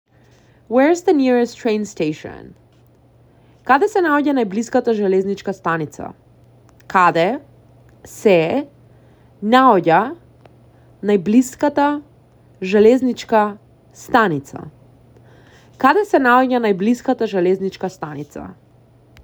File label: Where is the nearest train station, Macedonian; the talking macedonian phrasebook